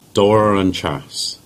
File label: "Chacewater", Cornish; place names